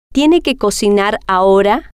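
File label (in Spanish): tiene Que Cocinar Ahora, spanish, female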